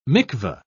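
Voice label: "mikvah", British English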